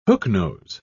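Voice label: "hooknose", British English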